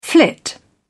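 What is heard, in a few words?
"flit", British English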